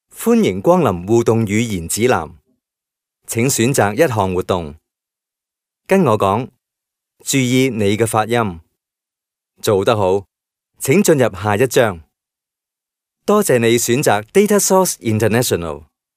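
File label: Cantonese male corporate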